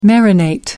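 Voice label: "marinate", English, British English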